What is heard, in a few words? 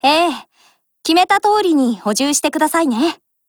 JP; MP40; FIX